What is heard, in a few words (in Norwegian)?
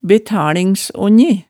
"betalingsonji", hemsedals maalet tale